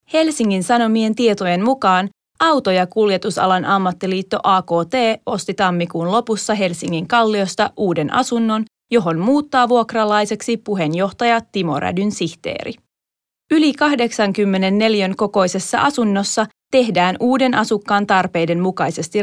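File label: Finnish Narration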